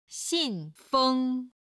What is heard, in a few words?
"xin4feng1", Chinese